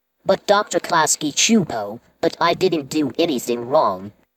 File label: cussing talking; splaat